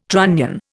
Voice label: "trunnion", Indian